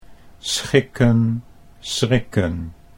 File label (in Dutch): "schikken schrikken", Dutch